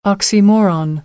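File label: "oxymoron", American English; AmericanBritish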